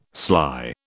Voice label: "sly", British

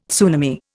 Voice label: "tsunami", Indian

